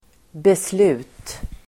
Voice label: "beslut", Swedish

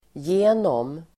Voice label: "genom", Swedish

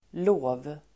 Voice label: "lov", Swedish

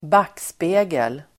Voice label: "backspegel", Swedish

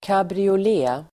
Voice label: "cabriolet", Swedish

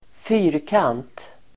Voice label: "fyrkant", Swedish

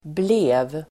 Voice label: "blev", Swedish

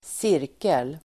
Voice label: "cirkel", Swedish

